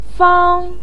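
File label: "fang" first tone, Mandarin Chinese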